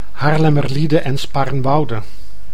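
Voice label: "Haarlemmerliede en Spaarnwoude", Dutch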